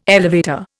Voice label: "elevator", Indian